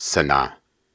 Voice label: Syllables and Imaginary Words, Viking Vocal; Viking Vocal Builder; Hard